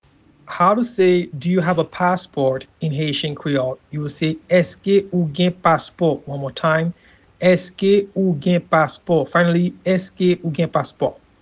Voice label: Do you have a passport in Haitian Creole Eske ou gen paspo pronunciation